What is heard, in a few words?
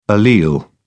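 "allele", British English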